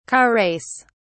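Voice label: "car race", English, British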